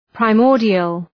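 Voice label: "primordial", English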